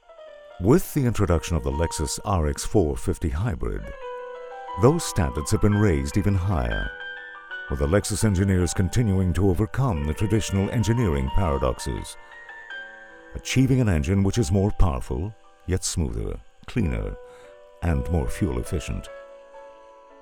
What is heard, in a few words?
English British automotive